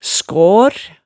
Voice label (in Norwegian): "skaar", hemsedalsmaalet